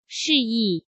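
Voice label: "示意", Mandarin Chinese; chinese flashcards; hsk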